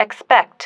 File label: "expect", female